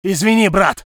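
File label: voice soviet infantry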